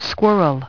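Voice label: "squirrel", English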